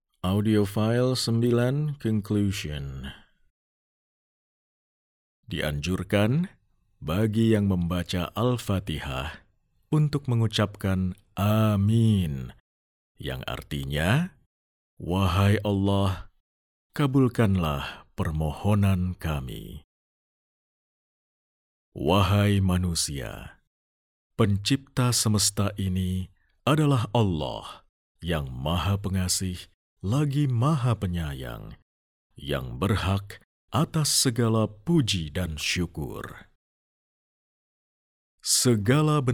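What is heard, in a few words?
Indonesian, voice over dubbing, male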